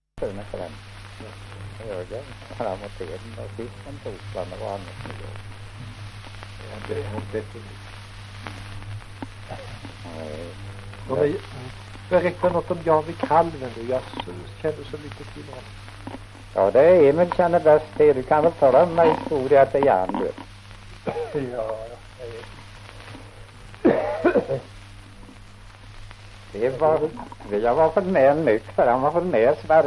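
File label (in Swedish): Sunnemo Värmland, dialekter; Folkminnen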